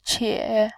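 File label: "qie", Mandarin Chinese, third tone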